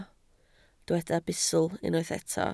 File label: "bustl", Welsh